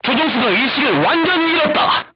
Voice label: voice message driver contused, crew tanks korean